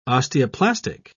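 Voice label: "osteoplastic", British English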